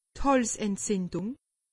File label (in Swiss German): "halzentzindung", alsace; medecine